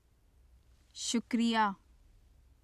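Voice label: "shukriya", Urdu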